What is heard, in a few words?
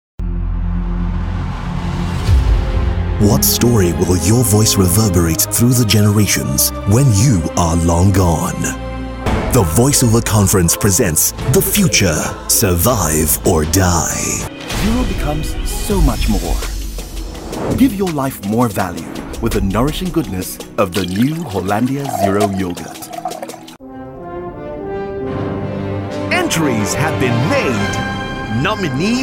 AFRICAN Commercial